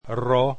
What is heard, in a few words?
"r", Esperanto alphabet